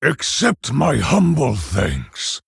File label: Male Orc Ogre Thanks